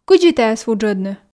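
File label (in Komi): кыдзи тайӧс вуджӧдны, Komi, female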